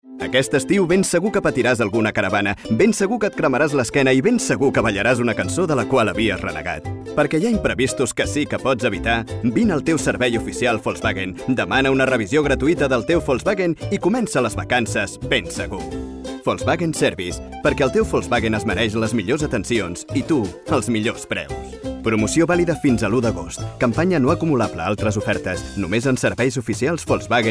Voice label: male catalan car insurance comercial; ideasonora

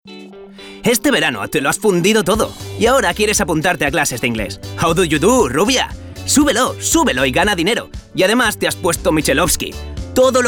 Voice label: male spanish tv comercial; ideasonora

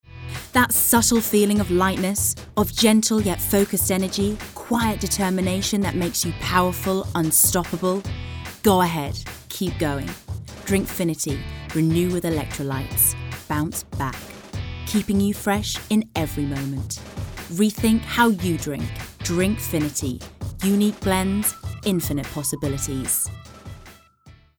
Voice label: female british english drink comercial

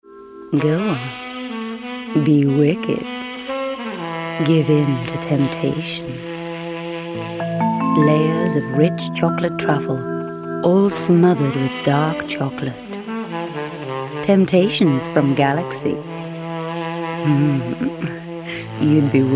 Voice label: female british english chocolate comercial; ideasonora

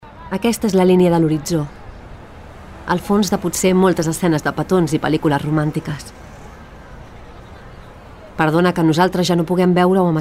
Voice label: female catalan ngo comercial

